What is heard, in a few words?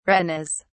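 "retinas", English, British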